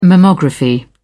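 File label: "mammography", English, uk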